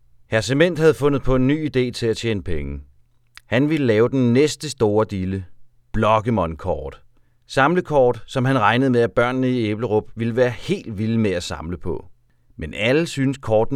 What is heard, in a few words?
Intro VO; Voices; Quarry